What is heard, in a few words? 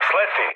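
Serbian bailout mood med, speech; War Thunder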